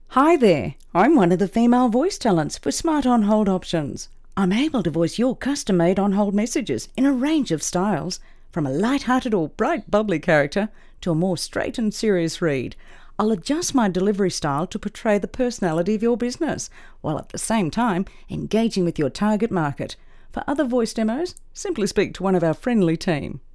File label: female